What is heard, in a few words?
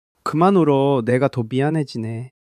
sadness